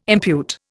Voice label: "impute", Indian

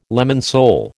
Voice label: "lemon sole", American

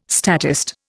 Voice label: "statist", Indian

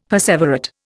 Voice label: "perseverate", Indian; Dictionary

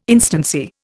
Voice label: "instancy", Indian

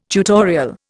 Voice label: "tutorial", Indian

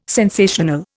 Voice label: "sensational", Indian

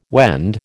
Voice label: "Wend", American

